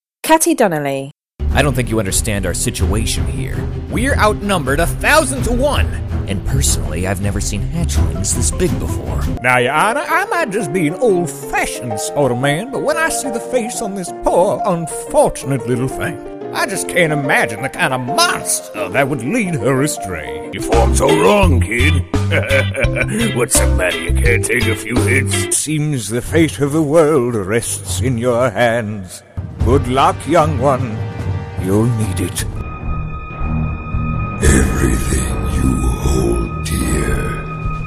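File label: Character, voiceover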